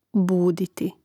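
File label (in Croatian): "buditi", Hrvatski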